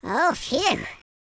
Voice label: relief, Welsh; speech